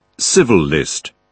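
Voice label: "civil list", British English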